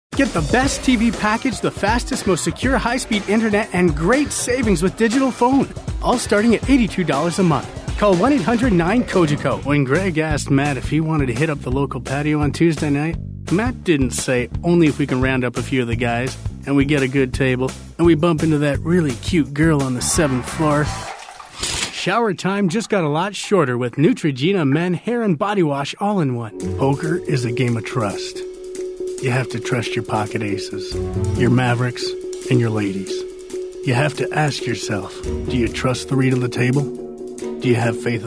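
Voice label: US English, male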